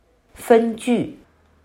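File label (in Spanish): "分剧", chino mandarin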